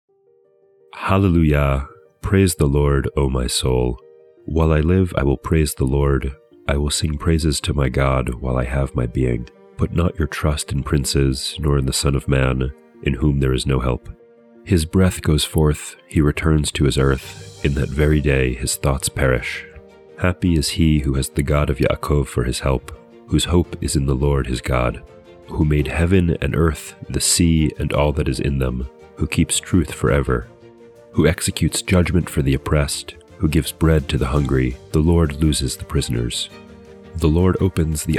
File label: poetry and prayer psalm english